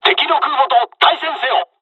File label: bombing, dialogs japanese; War Thunder